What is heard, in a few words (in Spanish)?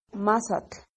ciervo, Náhuatl